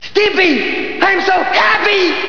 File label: so happy